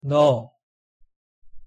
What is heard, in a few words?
"너", Korean